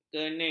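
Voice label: "kening", Malay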